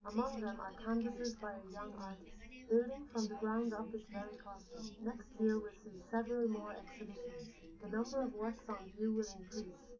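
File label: female, live recording; SiSEC11; underdetermined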